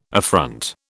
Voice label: "affront", British

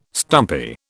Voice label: "stumpy", British

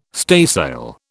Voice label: "staysail", British

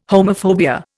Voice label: "homophobia", Indian

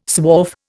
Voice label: "swarf", Indian

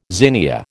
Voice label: "zinnia", American

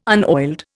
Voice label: "unoiled", Indian

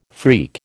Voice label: "freak", American

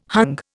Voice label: "hung", Indian

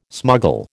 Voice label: "smuggle", American

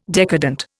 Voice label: "decadent", Indian

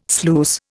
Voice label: "sluice", Indian

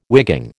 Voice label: "wigging", American

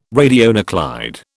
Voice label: "radionuclide", British

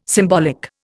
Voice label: "symbolic", Indian

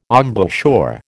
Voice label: "embouchure", American

